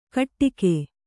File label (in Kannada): "ಘಟ್ಟಿಕೆ", Kannada